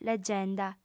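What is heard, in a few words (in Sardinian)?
"legenda", Sardinian, female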